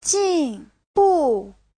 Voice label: "jin4bu4", chinese; Giáo trình Hán ngữ